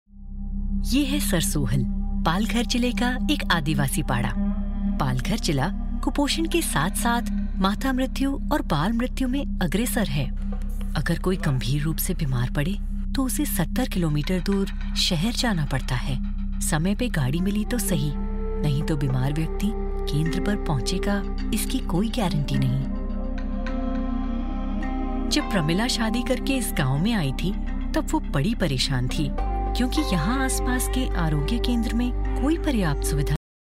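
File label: Indian documentary Hindi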